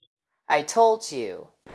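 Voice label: Voice Acting Spanish Female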